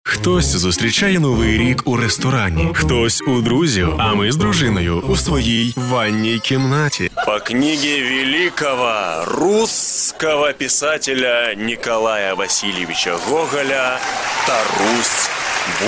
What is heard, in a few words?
Demo rus ukr